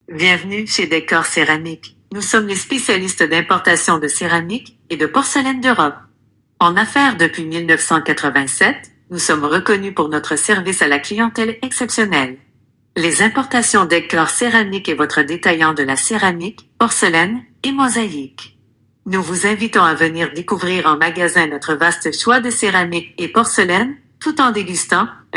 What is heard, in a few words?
Female French Intro; Dekkor AudioMaster